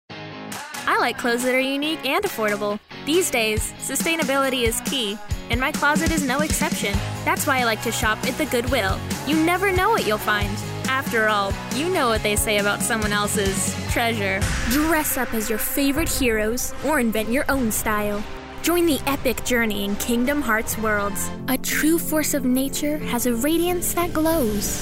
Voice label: kids commercial

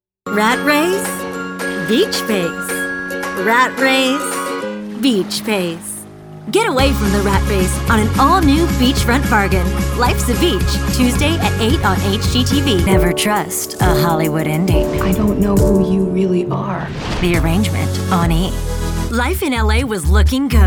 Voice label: women promos

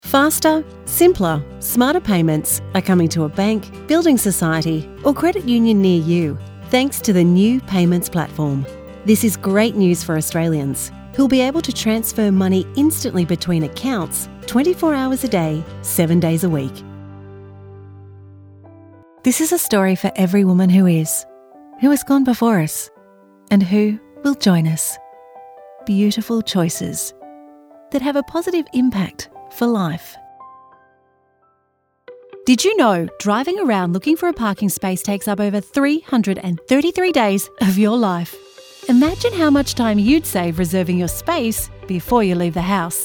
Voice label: women corporate

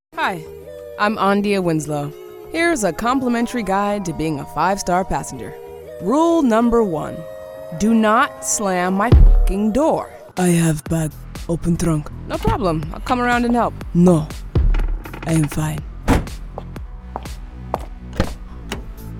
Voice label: women animation

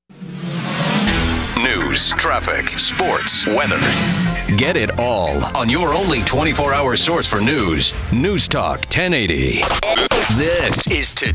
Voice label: men radio imaging

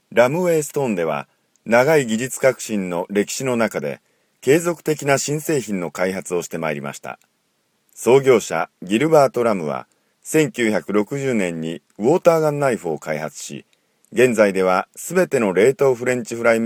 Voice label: japanese narration

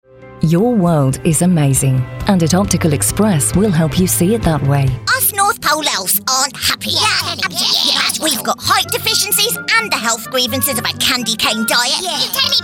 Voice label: british commercial

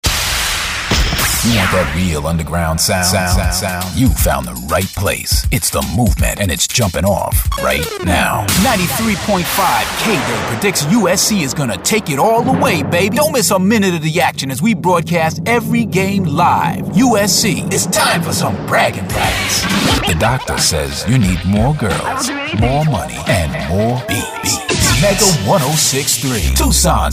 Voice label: men, radio imaging

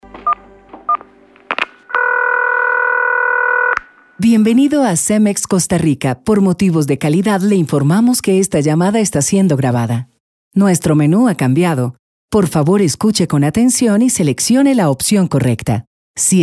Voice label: spanish telephone

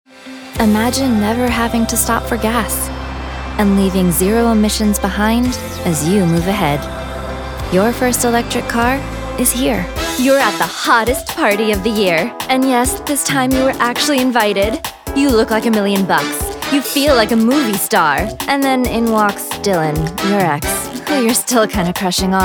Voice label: women commercial

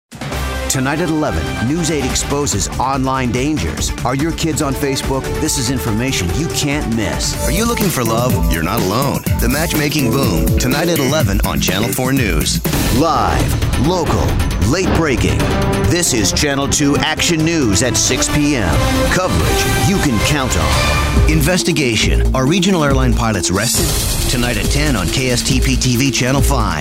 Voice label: men tv affiliate

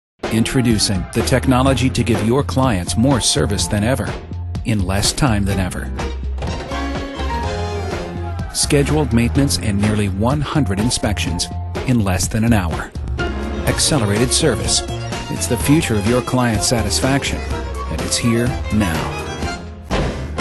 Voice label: men corporate

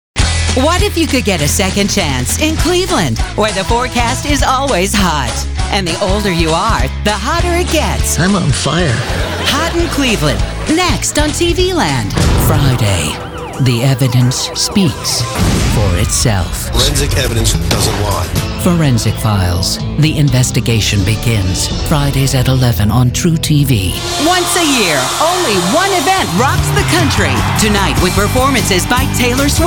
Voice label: women promos

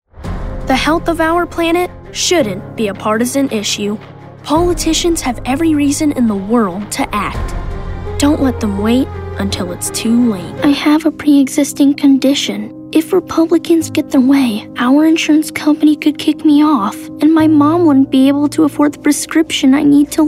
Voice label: kids political

